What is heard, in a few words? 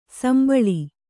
"ಸುಂಬುಳ", Kannada